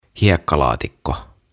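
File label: "Hiekkalaatikko", "Sandpit", Finnish, English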